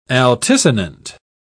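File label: "altisonant", British English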